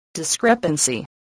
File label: "discrepancy", english spelling